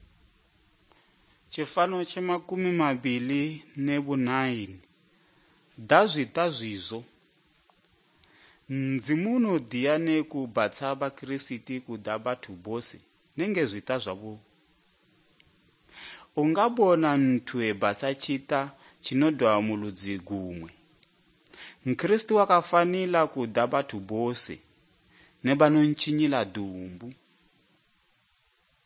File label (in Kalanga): good news kalanga lilima, drama; bible